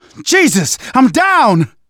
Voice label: incapacitated initial, manager, survivor, voice; Left 4 Dead 2; left4dead2 dlc2

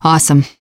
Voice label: taunt, teen girl

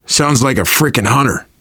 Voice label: heard hunter, voice, biker; Left 4 Dead 2; left4dead2 dlc3; survivor

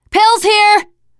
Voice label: spot pills, teengirl, voice; player; survivor

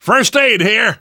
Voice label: spot first aid, namvet, survivor voice; Left 4 Dead 2; left4dead2 dlc2

